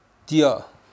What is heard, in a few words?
belly button, kham